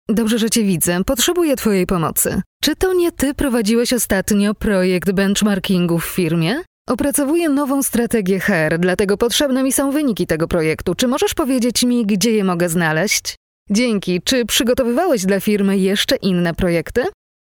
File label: polish female